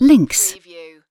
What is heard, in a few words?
UK female "links"; lynne publishing